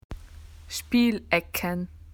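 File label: "play areas", Australian English; PictureDictionary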